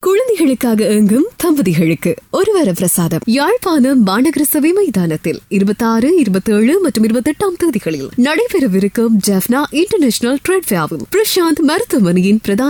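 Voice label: Tamil Sri Lanka, voice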